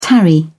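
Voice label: "tarry", British English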